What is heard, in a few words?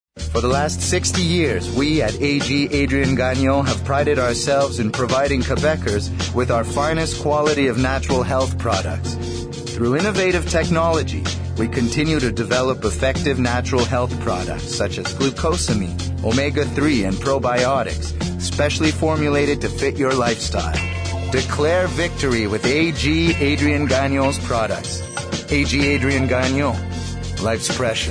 Voice Demo